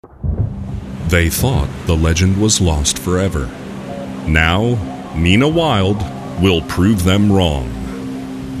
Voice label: atlantis radio ad